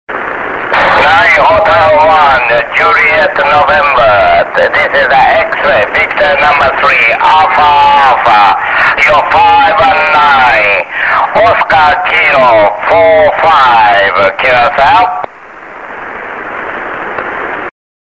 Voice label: on 6m SSB